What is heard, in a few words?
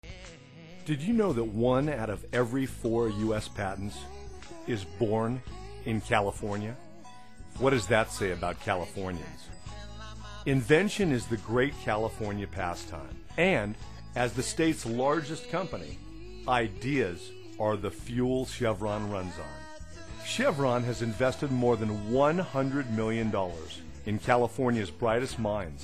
audio commercial